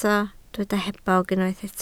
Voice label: "hebog", Welsh

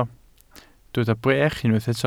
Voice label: "brech", welsh

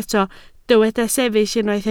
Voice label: "sefyll", Welsh

